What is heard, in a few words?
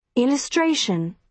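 "illustration", British English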